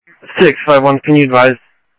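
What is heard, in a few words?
Sheriff East County, scanner; ThompsonPeakScot; MCSO - EAST; Sheriff-EastCnty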